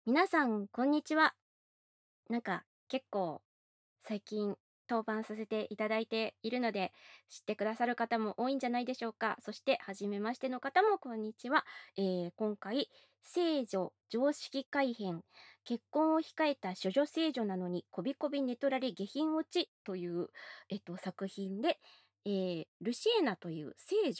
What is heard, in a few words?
FreeTalk, ASMR; フリートーク